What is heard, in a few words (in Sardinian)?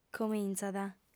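"comintzat", female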